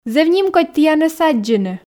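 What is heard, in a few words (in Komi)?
зэв нимкодь тіянӧс аддзыны, Komi, female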